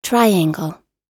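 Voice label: "triangle", English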